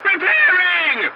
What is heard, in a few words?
voice message repairing; War Thunder